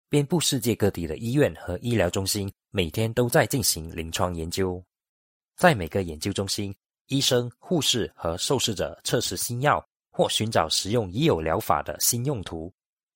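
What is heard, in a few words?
Chinese Malaysia, male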